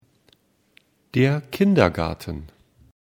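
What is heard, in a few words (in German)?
"kindergarten", German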